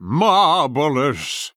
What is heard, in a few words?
Male Chess Piece Play